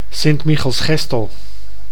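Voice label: "Sint-Michielsgestel", Dutch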